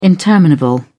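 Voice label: "interminable", British English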